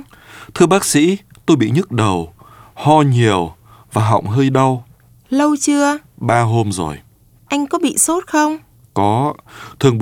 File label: Dialog